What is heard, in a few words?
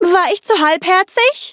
defeat, de; Cookie Run